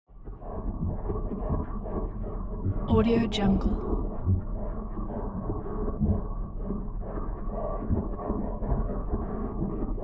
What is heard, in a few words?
tension multiplexed low gibberish; soundmorph